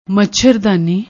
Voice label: "machardaani", Punjabi

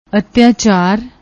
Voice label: "atyachar", Punjabi

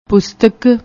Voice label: "poostak", Punjabi

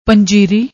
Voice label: "panjiri", Punjabi

